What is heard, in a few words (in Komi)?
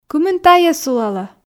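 кымын тайӧ сулалӧ, Komi, female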